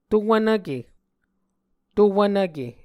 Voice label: "to wvnvke", Muscogee